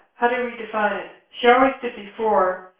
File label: female